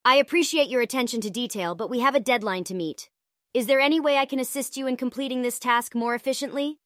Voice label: synthesized audio